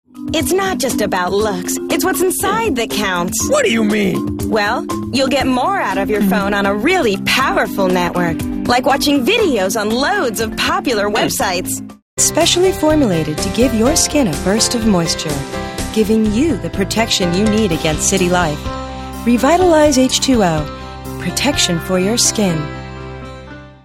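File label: American, TV commercial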